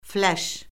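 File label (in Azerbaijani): "fləş", Azerbaijani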